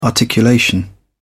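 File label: "articulation", English, British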